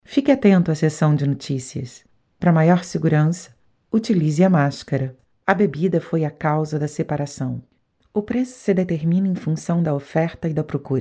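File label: Portuguese Brazil, female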